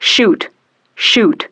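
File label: "Shoot Shoot", English; Betty; DCS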